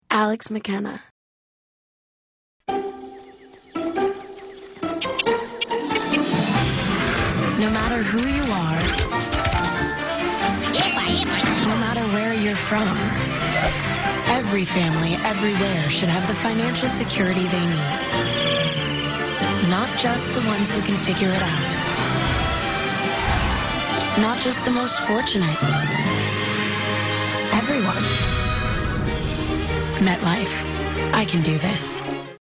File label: commercial; Innovative LA